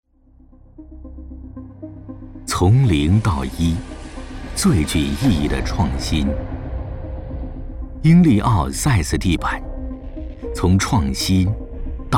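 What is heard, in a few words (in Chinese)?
宣传片高端地板; 英利奥地板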